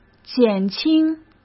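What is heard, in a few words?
"减轻", Mandarin Chinese; chinese flashcards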